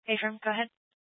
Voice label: Police Department Dispatch, scanner

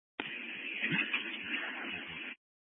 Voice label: Fire Dispatch

